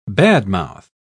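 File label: "badmouth", British English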